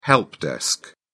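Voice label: "help desk", British English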